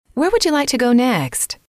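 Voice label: where Would You Like To Go Next, female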